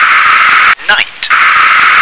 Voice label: "night", English